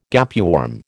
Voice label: "gapeworm", American